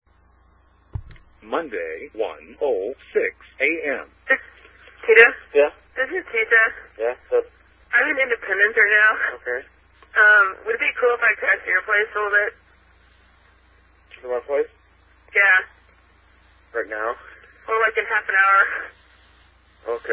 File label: late night phone call